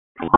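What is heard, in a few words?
Police Southwest District Dispatch; wake p25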